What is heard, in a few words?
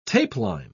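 "tapeline", British English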